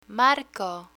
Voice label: "marco", Spanish; optician vocabulary; learn spanish

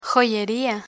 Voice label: "joyeria", Spanish

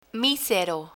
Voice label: "misero" Spanish

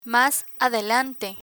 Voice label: mas adelante, Spanish

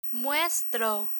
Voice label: "muestro" Spanish; verb mostrar conjugation; learn spanish

